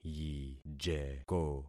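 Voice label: "yijeko", concatenated syllables; Affixing experiment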